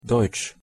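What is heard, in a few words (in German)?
"deutsch", German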